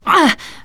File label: shoved, teen girl